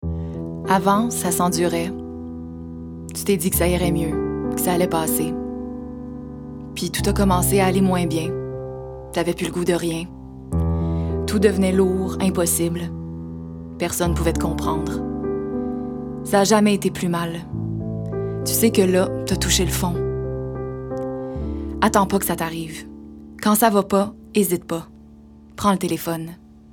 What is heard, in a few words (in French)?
voix dramatique